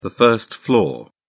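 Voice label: "first floor", British English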